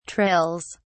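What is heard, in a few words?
"trills", British English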